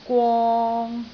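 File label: "gwong3", Cantonese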